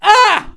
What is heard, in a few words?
pain, hostage; Counter-Strike Global Offensive